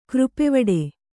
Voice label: "ಕೃಪೆವಡೆ", Kannada; křpevaḍe; to get another's favour; to have mercy.; to be merciful.

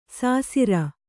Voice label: "ಸಸಾರ", Kannada

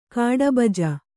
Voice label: "ಕಾಡುಬಜೆ", Kannada

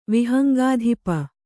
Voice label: "ವಿಹಂಗಾಧಿಪ", kannada

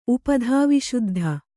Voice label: "ಉಪಧಾವಿಶುದ್ಧ", Kannada

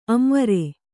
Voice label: "ಅಂವರೆ", Kannada; amvare; noun; sense of anxiety; fear; alarm

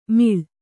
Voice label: "ಮಿಳ್", Kannada

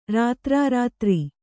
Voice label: "ರಾತ್ರಾರಾತ್ರಿ", Kannada

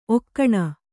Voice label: "ಒಗುನಿ", Kannada

